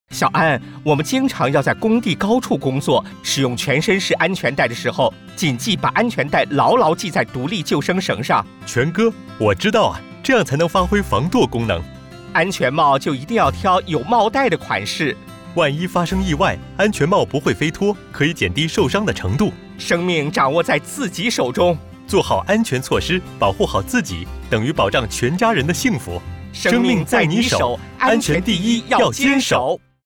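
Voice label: Mandarin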